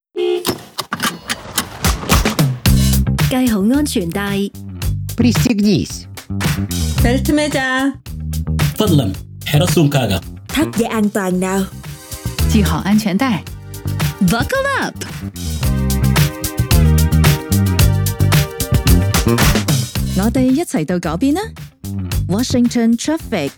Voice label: Radio Ad Cantonese

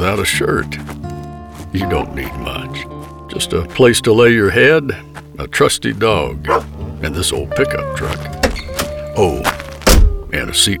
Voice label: Tough Guy Radio

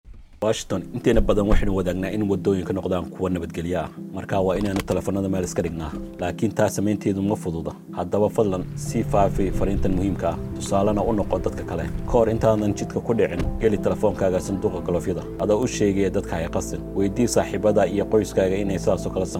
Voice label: Somali radio; Distracted Driving

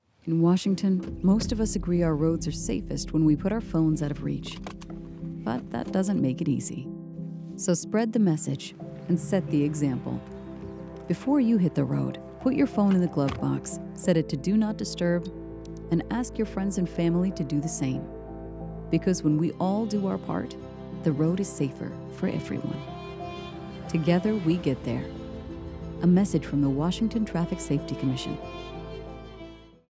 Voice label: Radio; Distracted Driving; English; Focused Driving

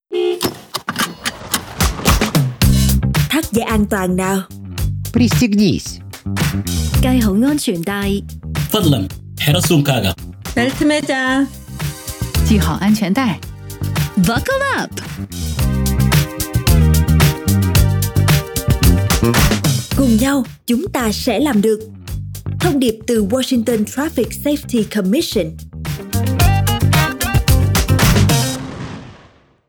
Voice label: Radio Ad Vietnamese

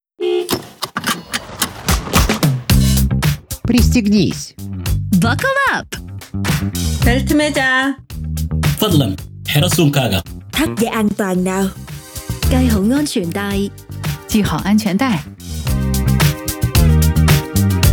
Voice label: Radio Ad Russian

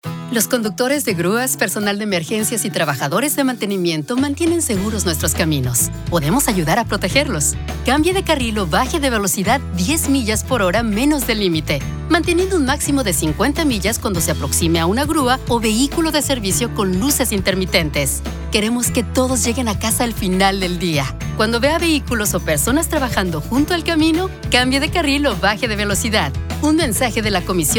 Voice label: Generic Radio Spanish, Move Over Slow Down

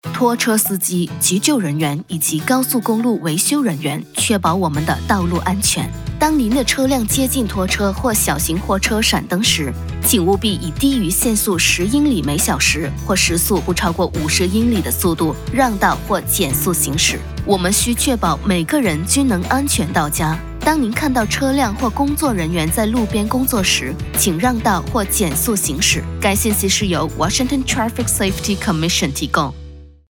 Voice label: Generic Radio Mandarin, Move Over Slow Down

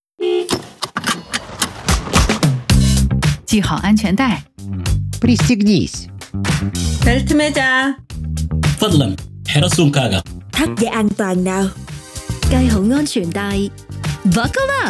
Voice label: Radio Ad Mandarin

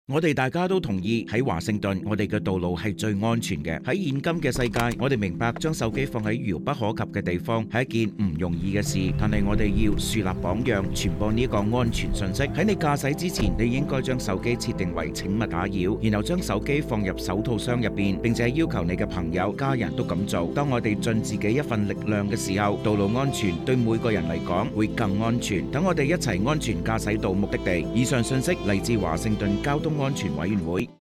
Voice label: Chinese Cantonese RADIO, Distracted Driving; Focused Driving

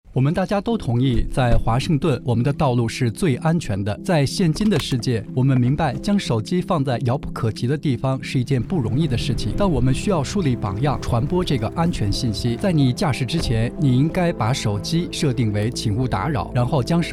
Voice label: Radio Mandarin RADIO, Distracted Driving

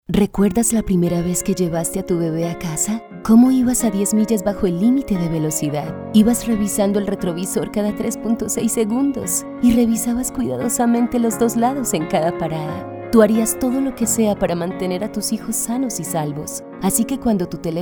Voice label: SPANISH Radio Spot

